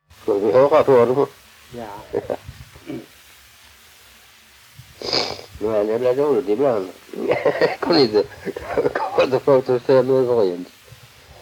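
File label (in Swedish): Allerum Skåne, dialekter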